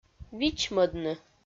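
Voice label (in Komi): "вичмӧдны", Komi, female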